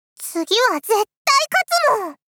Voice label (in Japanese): 次は絶対勝つもん！妹系×高音域